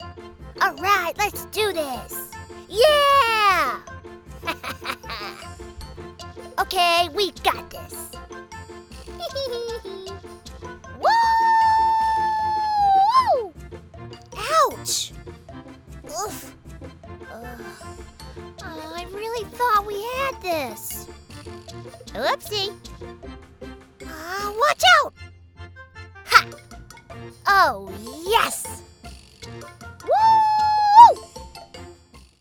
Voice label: english american video games; professionelle Synchronsprecherin